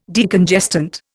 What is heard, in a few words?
"Decongestant", Indian